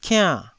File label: "kiann4", taiwanese syllable